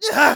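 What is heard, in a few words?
painsharp, German; Scout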